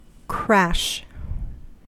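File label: "crash", US English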